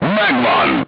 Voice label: voice message direct hit, hungarian; crew tanks